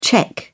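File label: "czech", British English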